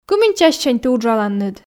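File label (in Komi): кымын чассянь ті уджаланныд, Komi, female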